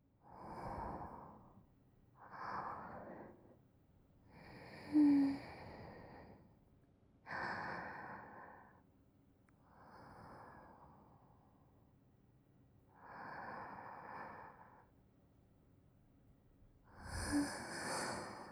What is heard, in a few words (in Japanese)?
ささやき声でのおしゃべり, ASMR; ささやき声でのおしゃべりを楽しみしながら、ゆるゆる優しい眠りに落ちる; 真竹の煤竹耳掻き・添い寝; 蓄音レヱル すずしろ; CV.上坂すみれ